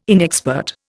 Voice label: "inexpert", Indian